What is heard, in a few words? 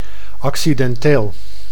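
"accidenteel", Dutch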